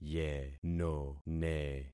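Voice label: "yenone", concatenated syllables; Affixing experiment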